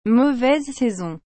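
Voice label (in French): "mauvaise saison", French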